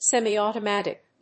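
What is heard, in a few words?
"semiautomatic", English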